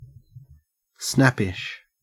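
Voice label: "snappish", Australian English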